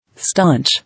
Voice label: "Staunch", English

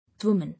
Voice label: "Woman", English

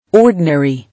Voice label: "Ordinary", English

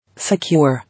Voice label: "Secure", English

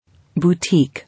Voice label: "Boutique", English

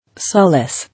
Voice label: "Solace", English

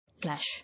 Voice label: "Lash", English

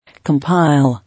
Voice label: "Compile", English

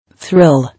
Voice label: "Thrill", English

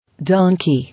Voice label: "Donkey", English

